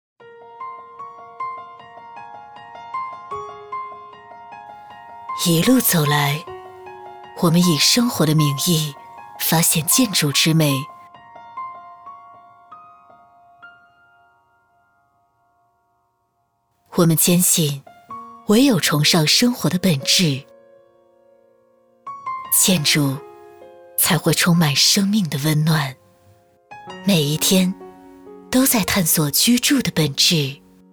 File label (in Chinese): 女 房产 大气磁性; 浩宇房产